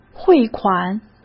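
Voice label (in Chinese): "汇款", Mandarin Chinese; chinese flashcards; hsk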